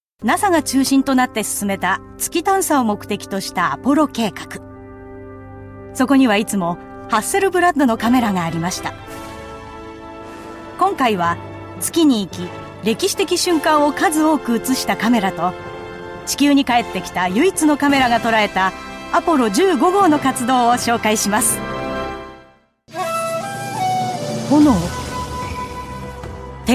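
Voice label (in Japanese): 日本語ナレーター, クールさとアルトの声質; 様々な司会業で鍛えられたクールさとアルトの声質は、説得力をうみだしています。; GAIKEI Models & Narrators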